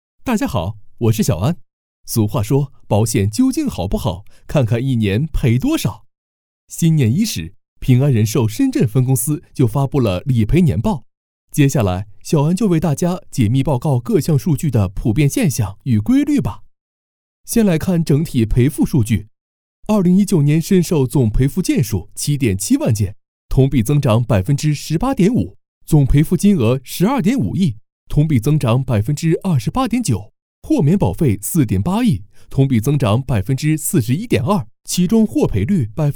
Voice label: 男 年报数据; 飞碟说; 平安人数深分理赔

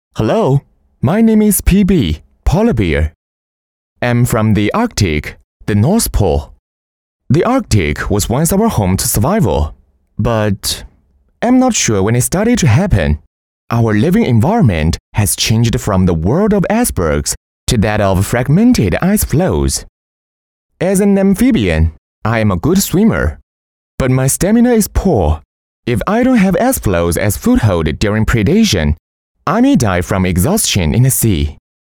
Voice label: 短剧配音英语样音; k11定制剧; symbiotic; 共生第二幕策划脚本

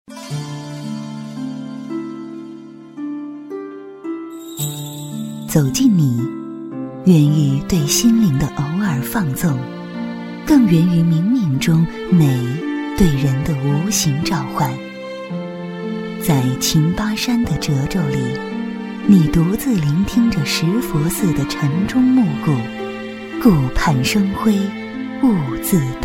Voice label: 女-专题-游记

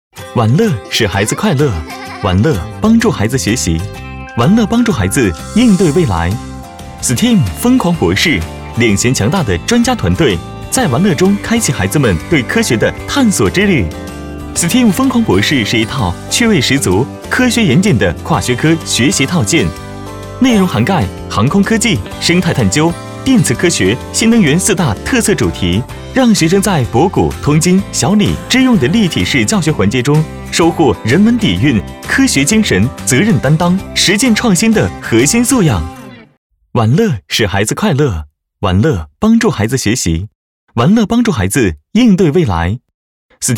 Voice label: 男 宣传; STEAM疯狂博士

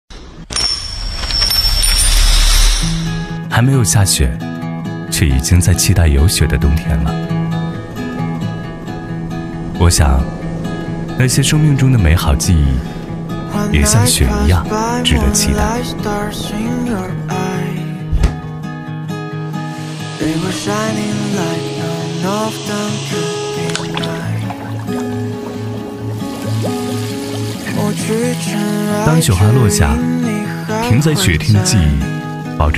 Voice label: 男 TVC; 卡萨帝

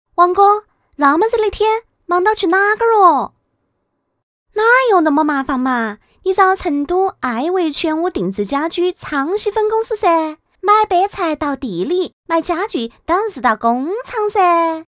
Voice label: 女 四川话; 王哥这么热的天忙着去哪里呀

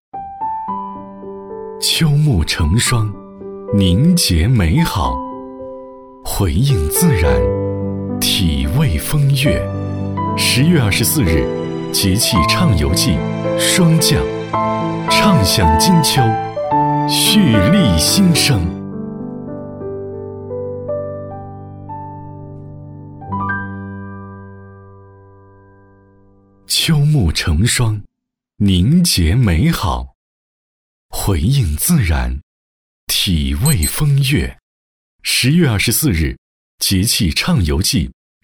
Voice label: 男 片花; 河南卫视节气唱游记

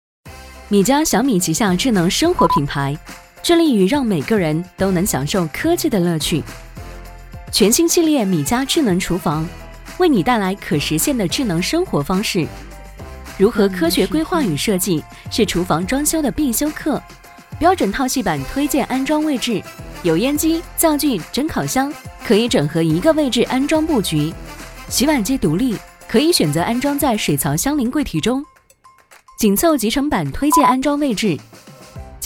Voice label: 女 广告 活泼科技; 米家小米旗下智能生活品牌

